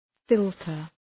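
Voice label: "filter", English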